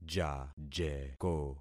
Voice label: "jajeko", concat syllables; Affixing experiment